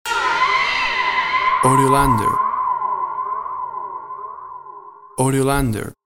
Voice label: Witches Voice Low